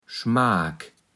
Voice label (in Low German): "Schmaak", platt